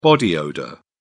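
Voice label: "body odour", British English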